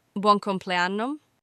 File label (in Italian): "Buon compleanno", Italian